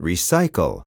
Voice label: "recycle", spanish